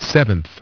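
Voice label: "seventh", English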